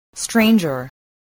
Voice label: "stranger", spelling

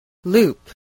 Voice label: "loop", voice

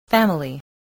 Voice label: "family", voice

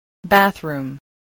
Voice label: "bathroom", English

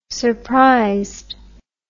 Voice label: "surprised", English word

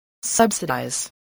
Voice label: "subsidize", spelling

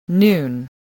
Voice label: "noon", voice, spelling

